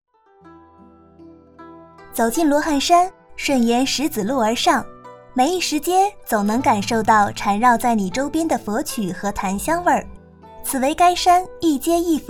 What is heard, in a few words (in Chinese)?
女配旅游解说